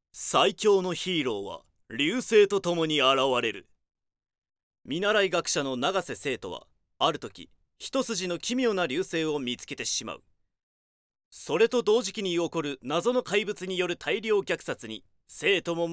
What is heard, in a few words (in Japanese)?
ナレーション